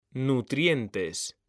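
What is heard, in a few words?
"nutrientes", Spanish, male; mekanta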